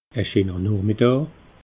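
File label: "scheener noochmidaa", Alsatian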